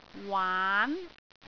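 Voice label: "waan2", Cantonese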